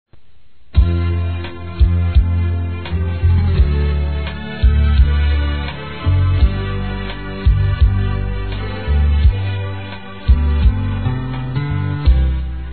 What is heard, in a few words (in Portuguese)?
Evang. (Obrigado por Existir) f, Telemensagens, Gospel, fem; Telemensagens 2017